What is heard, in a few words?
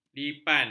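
"lipan", Malay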